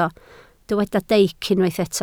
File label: "deic", Welsh